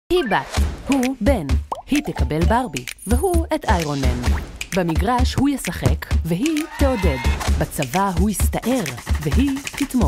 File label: hebrew others